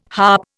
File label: "harp", Indian